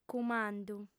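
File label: "cummandu", Sardinian, female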